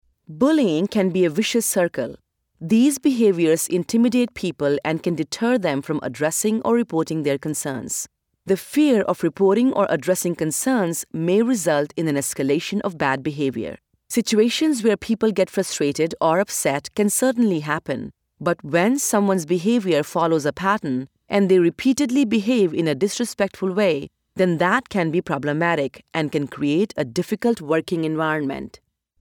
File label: Corporate Narration; Microsoft